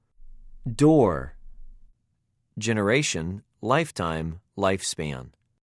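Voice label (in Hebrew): "דּוֹר", Hebrew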